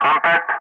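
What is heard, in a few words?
"contact", English, Wingman; Ka-50; Messages; DCS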